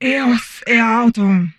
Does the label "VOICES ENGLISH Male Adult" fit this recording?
No